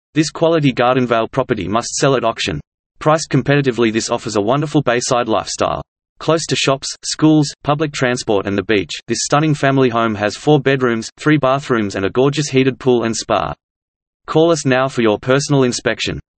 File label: AUS PRESENTER; REVideos